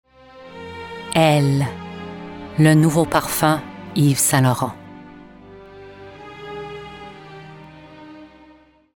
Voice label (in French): French commercial